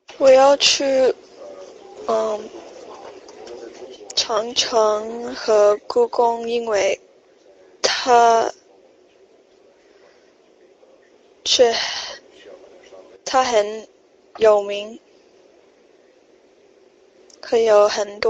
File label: sample chinese conversation